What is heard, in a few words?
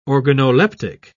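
"organoleptic", British English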